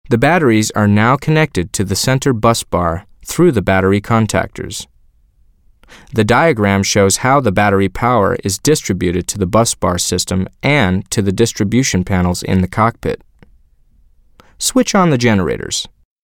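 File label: English US male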